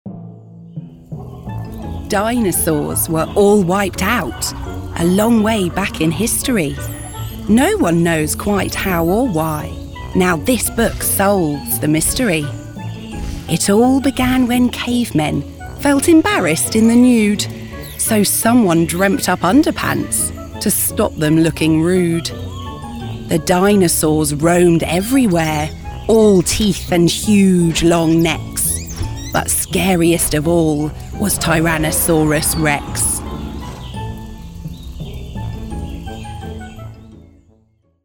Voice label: english british cartoon animation